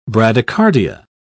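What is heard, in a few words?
"bradycardia", English, British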